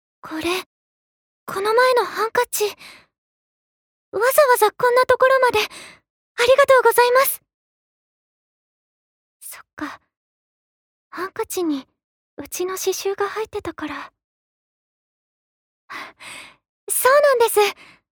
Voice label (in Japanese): アニメ